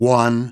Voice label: "one" announcer; numbers and letters

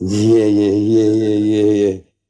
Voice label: "yeah yeah yeah yeah", voice; ooh ahh

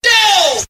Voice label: "doh", voice; ooh ahh

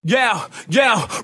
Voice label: "yo yo", Voice; ooh ahh

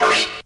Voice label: "three" robot; numbers and letters

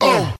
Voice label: "uh" group of men

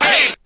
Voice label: "hey", male chorus

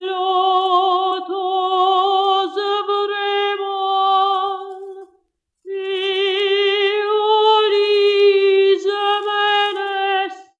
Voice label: voice italian gibberish; Loops; Sad

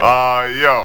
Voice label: "ah yo", male

